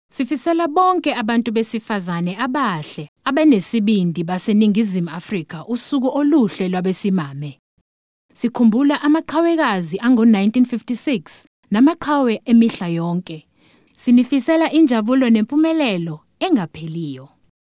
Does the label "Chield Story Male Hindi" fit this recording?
No